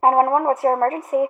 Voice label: phone voice